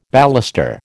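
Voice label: "baluster", American English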